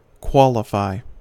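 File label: "qualify", American English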